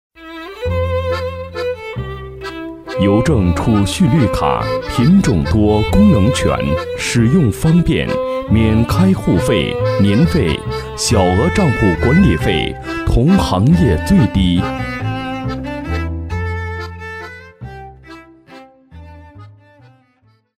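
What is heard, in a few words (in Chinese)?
广告, 男; 邮政储蓄绿卡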